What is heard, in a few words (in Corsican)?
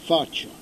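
"faccia", Corsican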